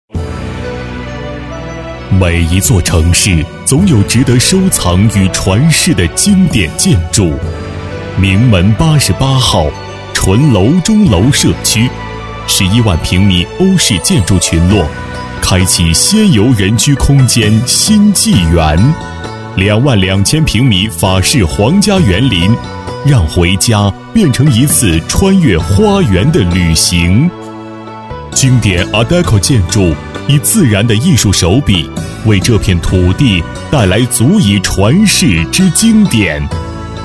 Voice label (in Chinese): 男 广告; 名门88号